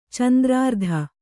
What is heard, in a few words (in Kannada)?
"ಚಂದ್ರಾರ್ಧ", Kannada